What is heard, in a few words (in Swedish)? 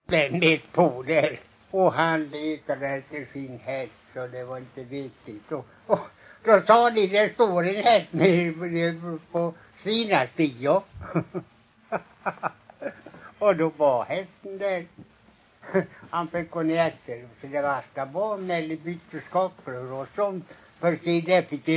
Lommaryd SMÅL, dialekter; Folkminnen; Svenska sagor